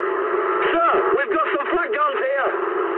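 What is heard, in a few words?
damaged anti-aircraft mood high, speech English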